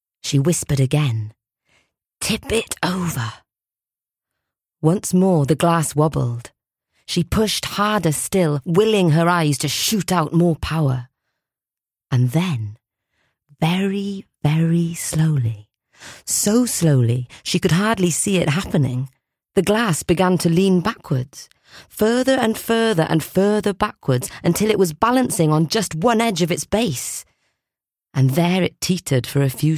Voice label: Audiobook